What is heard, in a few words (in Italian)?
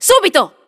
italian Moving; azurlane hoi4; azurlane sr